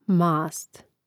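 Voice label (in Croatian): "mast", Croatian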